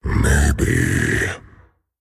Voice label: Male Dragon Thinking; Thinking1; What if...; en; 风暴巨龙迦拉克隆（英雄）